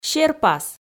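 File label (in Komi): "серпас", Komi, female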